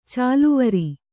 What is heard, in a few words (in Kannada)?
"ಚಾಲುವರೆ", Kannada